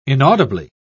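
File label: "inaudibly", British English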